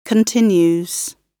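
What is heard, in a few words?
"continues", British English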